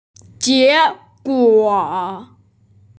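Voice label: "jie2guo3", female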